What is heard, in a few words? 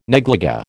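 "negligée", American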